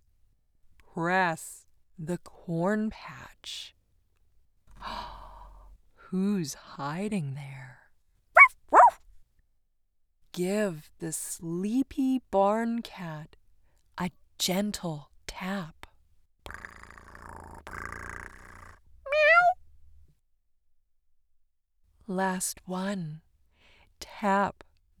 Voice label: Childrens Media BUTTON BOOK PROMPTS Farm, kids content boy voice; Childrens Media Sample; Lil Farm